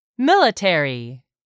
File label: "military", English; 3000 words